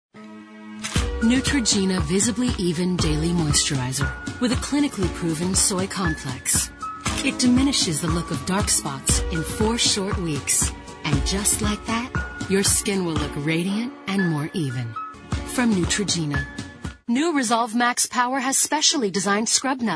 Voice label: Commercial